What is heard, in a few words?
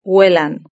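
"huelan", Spanish; verb-conjugator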